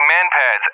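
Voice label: "MANPADS", English, USA; AirDefence; DCS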